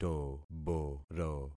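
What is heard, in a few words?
"toboro", concatenated syllables; Affixing experiment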